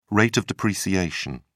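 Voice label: "rate of depreciation", British English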